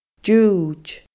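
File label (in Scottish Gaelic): "diuid", Scottish Gaelic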